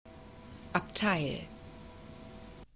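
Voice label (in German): "Abteil", German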